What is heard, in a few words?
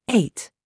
DRESS TRAP, minimal pairs